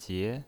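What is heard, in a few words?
"jie", second tone, pinyin voice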